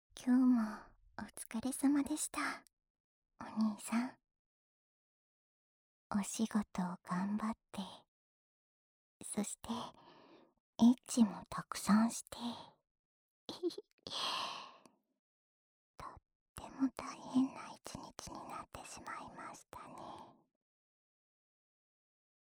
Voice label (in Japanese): 安眠誘導ボイス, ASMR; 凛南Ver